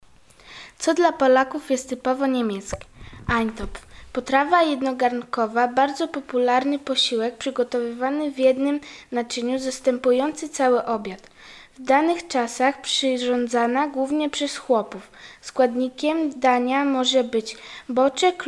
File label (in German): polnisch; P Eintopf